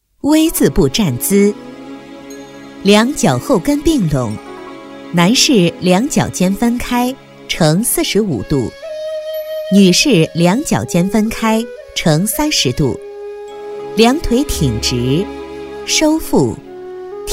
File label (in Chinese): 女 解说 V字步站姿 分解动作